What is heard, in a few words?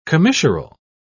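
"commissural", English, British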